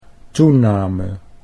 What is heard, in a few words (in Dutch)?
"toename", Dutch